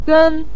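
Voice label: "gen", Mandarin Chinese, neutral tone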